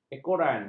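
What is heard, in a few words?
"ekoran", Malay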